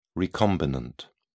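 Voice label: "recombinant", British English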